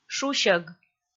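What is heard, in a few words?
"шусьӧг", Komi, female; kpv audio dict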